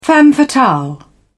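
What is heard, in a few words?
"femme fatale", British English, dictionary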